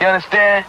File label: "you understand", male